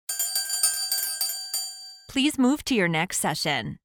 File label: bell please move to your next session